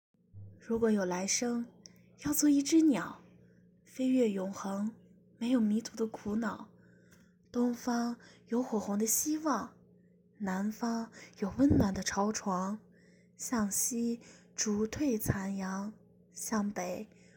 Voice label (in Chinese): 情感